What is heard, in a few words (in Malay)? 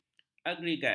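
"agregat", Malay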